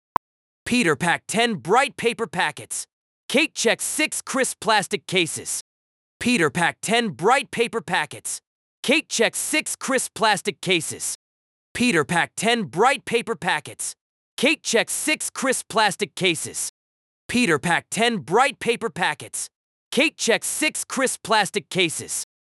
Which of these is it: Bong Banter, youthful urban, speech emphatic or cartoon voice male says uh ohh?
speech emphatic